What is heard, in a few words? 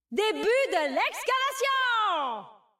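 French; 探奇（守望先锋2）; 终极技能; Début de l’excavation !; 法语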